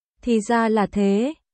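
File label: Vietnamese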